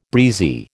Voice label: "breezy", American

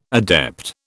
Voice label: "adept", British

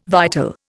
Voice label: "vital", Indian

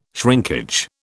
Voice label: "shrinkage", British

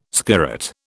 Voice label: "skirret", British

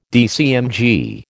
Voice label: "DCMG", American

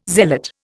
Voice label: "zealot", Indian

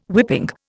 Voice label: "whipping", Indian

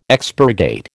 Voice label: "expurgate", American

